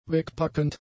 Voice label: "wegpackend", German; adjectives

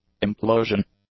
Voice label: "implosion", English

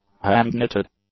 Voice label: "hand-knitted", English; adjectives

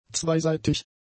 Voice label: "zweiseitig", German; adjectives

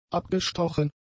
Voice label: "abgestochen", German; adjectives

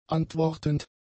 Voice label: "antwortend", German; adjectives

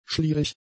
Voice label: "schlierig", German; adjectives

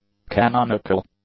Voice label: "canonical", English; adjectives

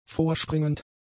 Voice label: "vorspringend", German; adjectives

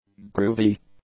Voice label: "groovy", english; adjectives

